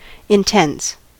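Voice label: "intense", US English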